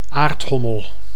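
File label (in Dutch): "aardhommel", Dutch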